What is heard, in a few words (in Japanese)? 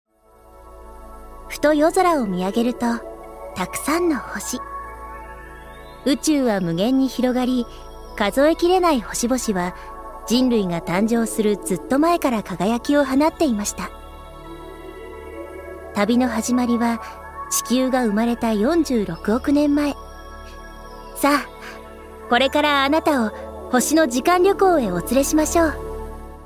ナレーション